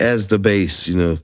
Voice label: LoFi Phrases, LoFi Vocals; Vengeance Samples